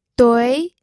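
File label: "dui", pinyin, second tone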